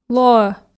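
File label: "лоӧ", Komi, female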